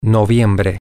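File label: november, Spanish, male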